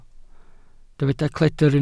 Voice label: "cledr", Welsh